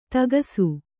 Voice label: "ಥಕಾಸು", Kannada